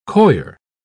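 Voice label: "coir", British English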